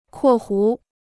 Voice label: "括弧", Chinese

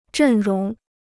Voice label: "阵容", Chinese

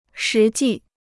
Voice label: "实际", Chinese

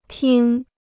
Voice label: "听", Chinese

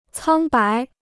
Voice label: "苍白", Chinese

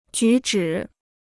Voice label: "举止", Chinese

